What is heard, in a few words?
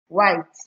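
"white", Nigerian Pidgin, female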